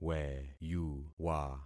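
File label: "weyuwa", concatenated syllables; Affixing experiment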